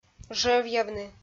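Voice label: "жӧвъявны", Komi, female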